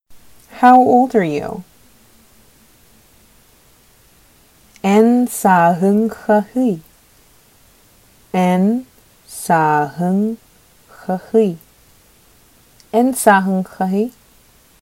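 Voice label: Sakha How old are you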